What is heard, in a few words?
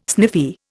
"sniffy", Indian